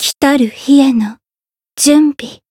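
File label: growth, Japanese; ewella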